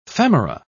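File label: "femora", British English, dictionary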